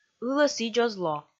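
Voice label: "ылӧ сідз оз ло", Komi, female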